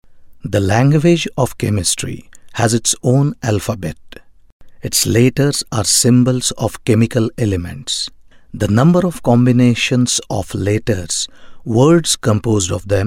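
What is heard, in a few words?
english indian, male, informative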